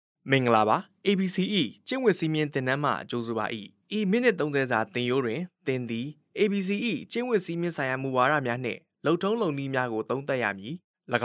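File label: Burmese, Myanmar, male, voice over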